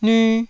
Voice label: "nyu1", Taiwanese

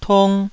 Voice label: "tung2", taiwanese Syllables

